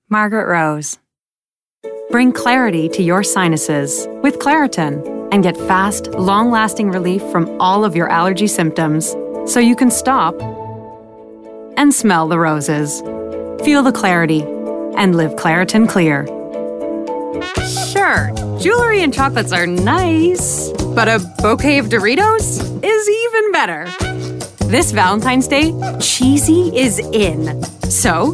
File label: commerical demo